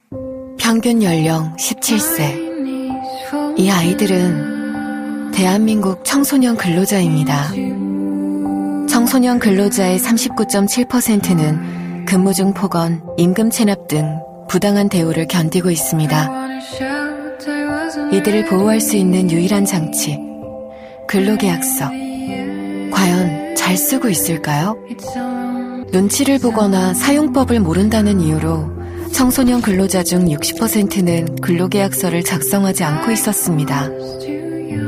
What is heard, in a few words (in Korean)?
나레이션 따뜻한 30대